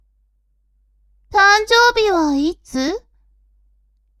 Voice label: woman